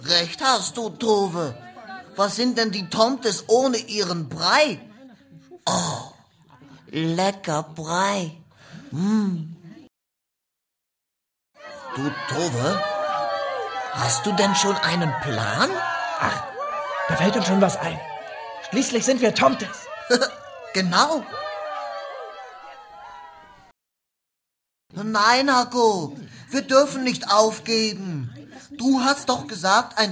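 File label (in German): trickstimme, sprecher; LOFT VOICES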